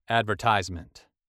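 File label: "advertisement", American English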